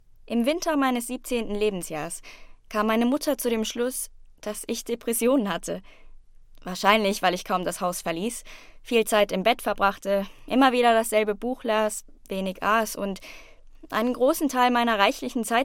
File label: German